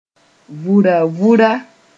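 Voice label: "vura vura", Mooré; cartoon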